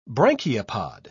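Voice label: "branchiopod", British English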